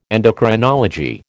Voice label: "endocrinology", American

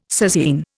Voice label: "sasine", Indian

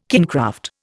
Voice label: "kingcraft", Indian

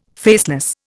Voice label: "faceless", Indian

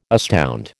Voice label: "astound", American

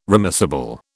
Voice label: "remissible", British

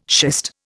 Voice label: "schist", Indian

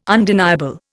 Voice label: "undeniable", Indian

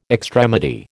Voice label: "extremity", American

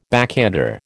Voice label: "backhander", American

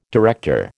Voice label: "director", American

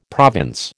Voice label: "province", American

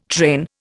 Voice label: "train", Indian

